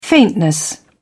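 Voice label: "faintness", British English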